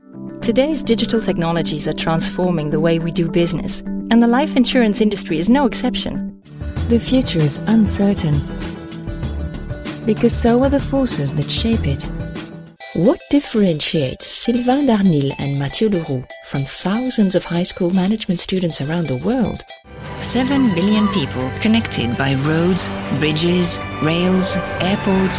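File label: ENGLISH NARRATION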